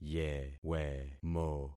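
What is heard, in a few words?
"yewemo", concatenated syllables; Affixing experiment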